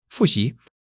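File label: "fu4 xi2", Chinese; HSK3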